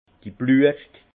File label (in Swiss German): "bluescht", Alsace; vin; fleurs de vigne